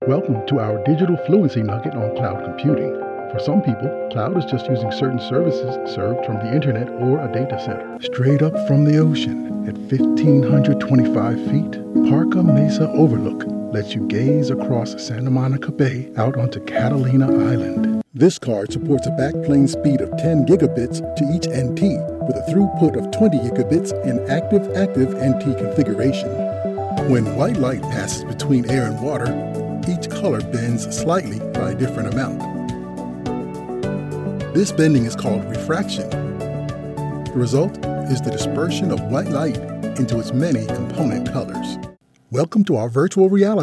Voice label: Narration